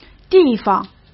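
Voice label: "地方", Mandarin Chinese; chinese-flashcards; hsk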